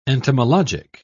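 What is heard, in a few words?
"entomologic", English, British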